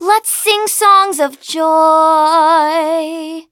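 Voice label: level up, English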